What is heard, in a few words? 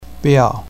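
"biao4", Chinese pinyin, fourth tone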